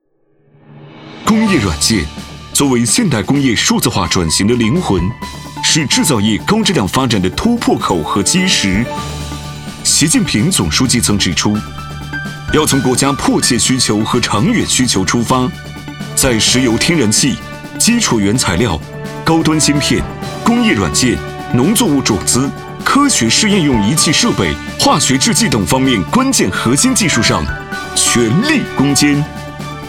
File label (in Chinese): 男 科技大气 工业软件